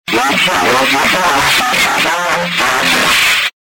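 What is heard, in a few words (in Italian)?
"e uscirà", Italian; E uscirà dei forti che ce ne ha scalate!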